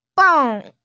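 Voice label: "beng4", Mandarin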